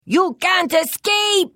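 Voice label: Thief, Khajiit female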